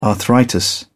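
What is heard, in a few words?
"arthritis", English, British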